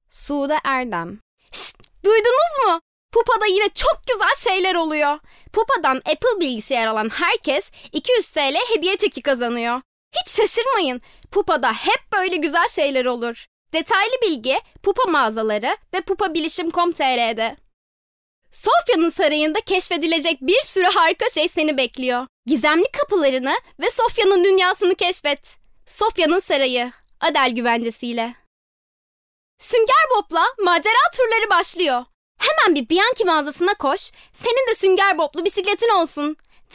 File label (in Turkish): reklam